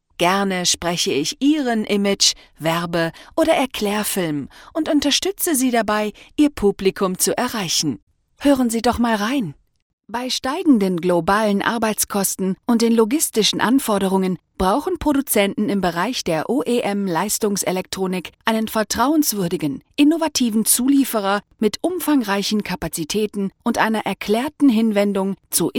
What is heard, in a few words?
german narration